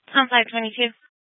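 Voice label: Tempe police department, scanner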